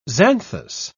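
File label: "xanthous", English, British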